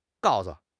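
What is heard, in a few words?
"gao4 su5", Mandarin Chinese; HSK2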